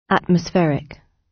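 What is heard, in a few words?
"atmospheric", British English; Space, the planets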